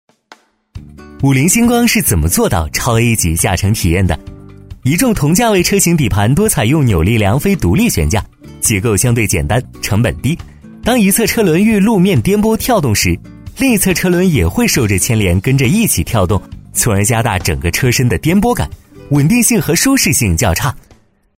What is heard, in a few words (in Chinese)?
男C9-汽车介绍, 年轻质感 高端大气; 五菱星光; 男C9-汽车介绍-五菱星光